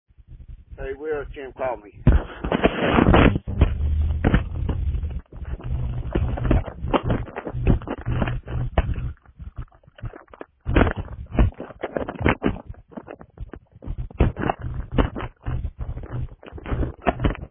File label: Voicemail